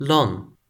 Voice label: "lon", Toki Pona

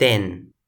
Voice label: "ten", Toki Pona

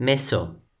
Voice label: "meso", Toki Pona

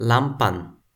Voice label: "lanpan", Toki Pona

